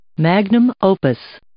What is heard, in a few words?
"magnum opus", English